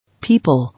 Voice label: "People", English

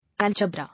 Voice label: "Algebra", English

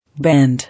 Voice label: "Band", English

